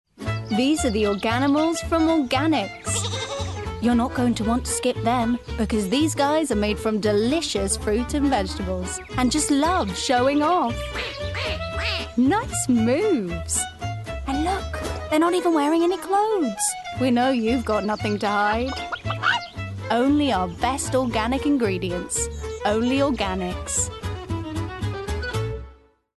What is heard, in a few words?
English; Organix